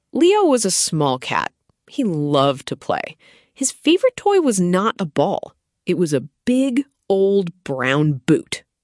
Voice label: narration; o gato no sapato; historia en A2